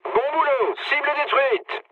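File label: bomb success, mood low, French; War Thunder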